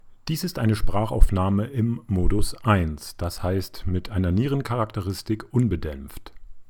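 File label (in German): Blue Snowball Modus 2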